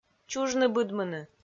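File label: "чужны-быдмыны", Komi, female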